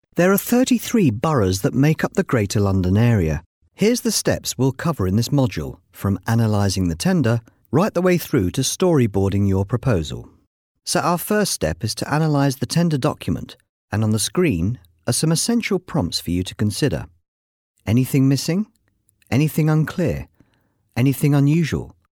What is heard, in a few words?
Natural VO